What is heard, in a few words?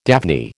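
"daphne", American English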